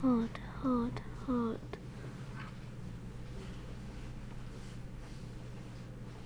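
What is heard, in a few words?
"hold", spoken word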